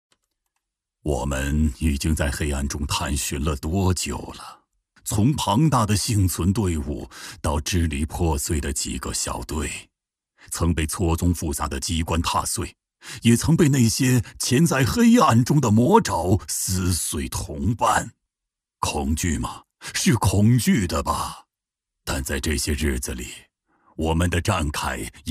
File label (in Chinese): 男 游戏角色; 地宫探索; 暗黑破坏神-不朽